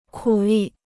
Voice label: "苦力", Chinese

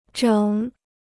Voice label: "整", Chinese

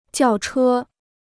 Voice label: "轿车", Chinese

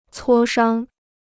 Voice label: "磋商", Chinese

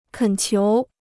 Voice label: "恳求", Chinese

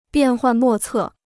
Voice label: "变幻莫测", Chinese

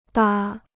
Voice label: "搭", Chinese

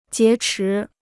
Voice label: "劫持", Chinese

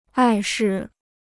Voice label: "碍事", Chinese

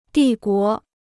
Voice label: "帝国", Chinese

